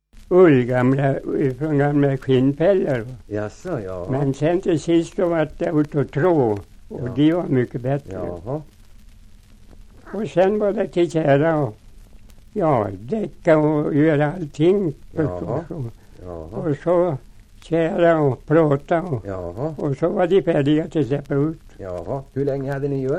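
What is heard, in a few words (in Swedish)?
Säterbo Västmanland, dialekter, svenska sagor; Folkminnen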